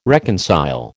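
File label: "reconcile", American English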